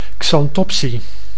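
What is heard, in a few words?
"xanthopsie", Dutch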